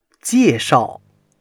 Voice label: "jie4shao4", chinois

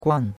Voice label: "guan4", chinois; Cours-de-Chinois

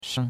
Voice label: "sheng5", chinois; Cours-de-Chinois

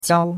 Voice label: "jiao1", chinois; Cours-de-Chinois

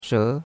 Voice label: "she2", chinois; Cours-de-Chinois

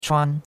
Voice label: "chuan1", chinois; Cours de Chinois

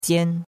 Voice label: "jian1", chinois; Cours-de-Chinois

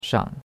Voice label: "shang3", chinois; Cours-de-Chinois

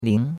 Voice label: "ling2", chinois; Cours de Chinois

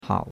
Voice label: "hao3", chinois; Cours de Chinois

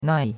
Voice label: "nai4", chinois; Cours de Chinois

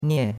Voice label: "nie4", chinois; Cours-de-Chinois

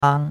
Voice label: "ang1", chinois; Cours de Chinois

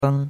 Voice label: "beng1", chinois; Cours-de-Chinois

